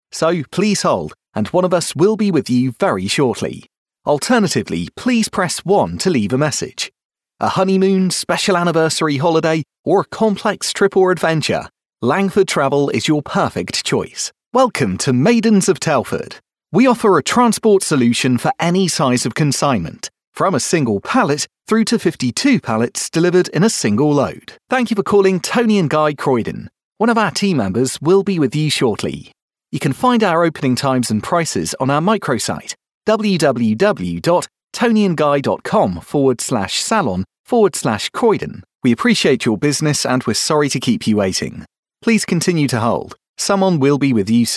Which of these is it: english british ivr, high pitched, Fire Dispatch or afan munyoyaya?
english british ivr